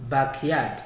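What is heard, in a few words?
"backyard", Nigerian Pidgin, male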